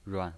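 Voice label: "ruan", Mandarin Chinese pinyin voice, neutral tone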